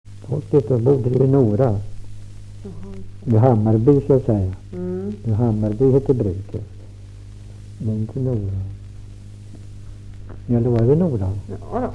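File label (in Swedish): Ramundeboda Närke, dialekter; Folkminnen; Svenska sagor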